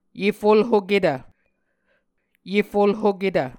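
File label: "yefulhoketv", Muscogee word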